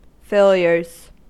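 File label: "failures", American English; pronunciations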